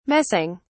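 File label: "messing", English, British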